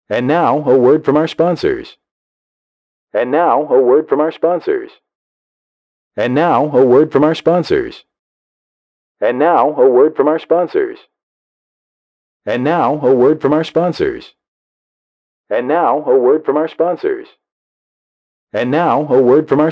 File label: And Now A Word From Our Sponsors Am Radio